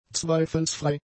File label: "zweifelsfrei", German; adjectives